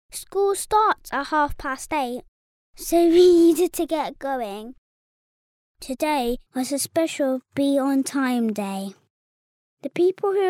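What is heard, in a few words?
British English, child; voice over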